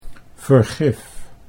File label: "vergif", Dutch